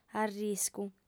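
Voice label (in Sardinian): "arriscu", lingua sarda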